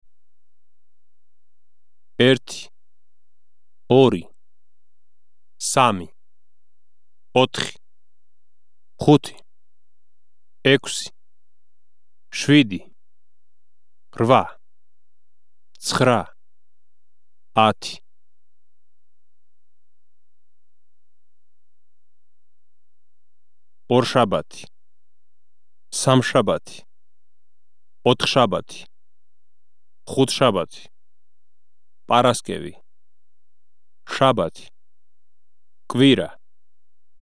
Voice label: georgisch

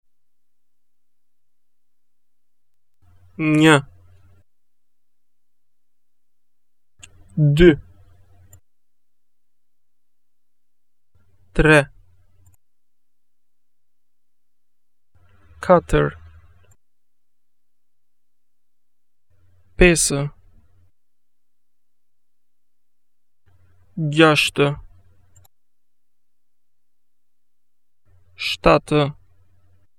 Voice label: albanisch

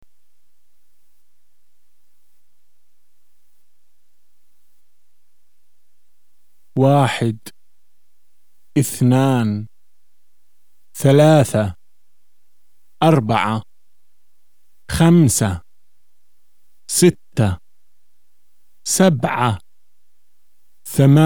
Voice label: arabisch

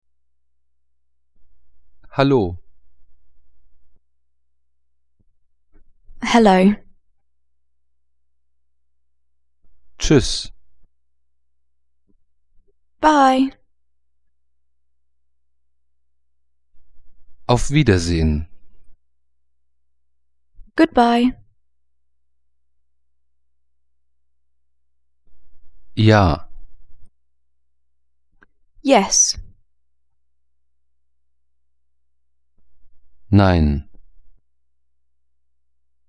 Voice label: englisch

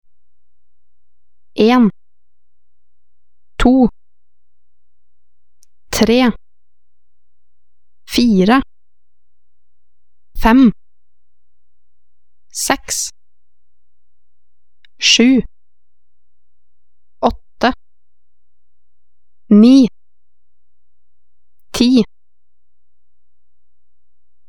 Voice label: norwegisch

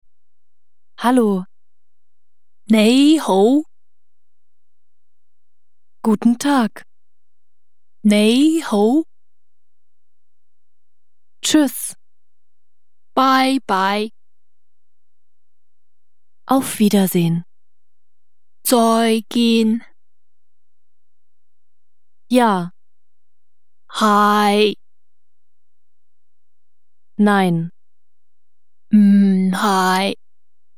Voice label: kantonesisch

